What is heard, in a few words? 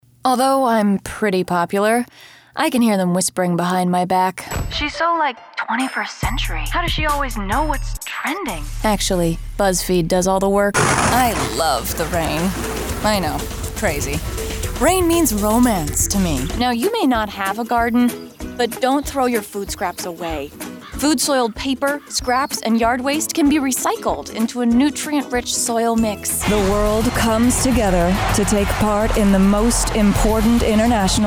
Commercial